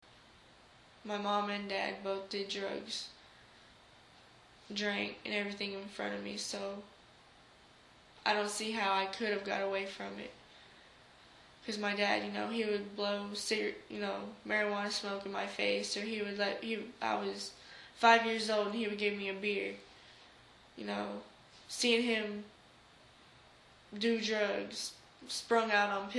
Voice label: girls voices